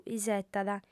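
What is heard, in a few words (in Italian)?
"isetat", lingua sarda, fem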